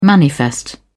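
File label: "manifest", British English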